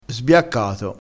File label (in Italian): "sbiaccato", crespinese